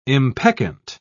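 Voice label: "impeccant", British English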